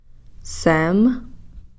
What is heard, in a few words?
"seem", Cow Creek; duck